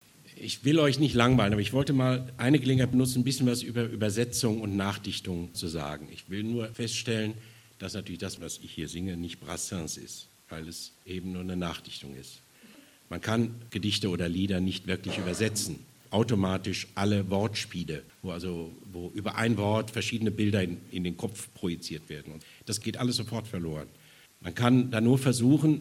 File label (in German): ansage